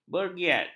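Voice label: "bergiat", Malay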